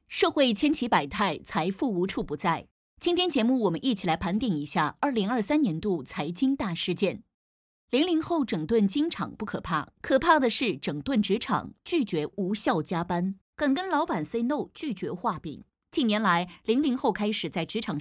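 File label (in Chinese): 台湾腔 女; TVB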